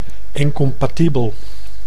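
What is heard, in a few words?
"incompatibel", Dutch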